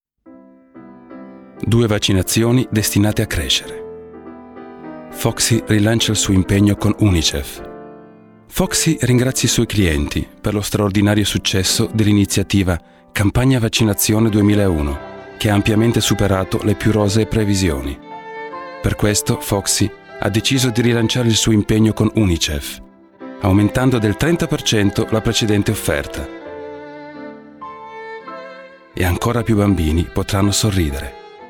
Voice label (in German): it werbung, italienisch; sprecher